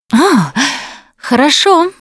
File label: pain relief pills, Russian; Voice; Producer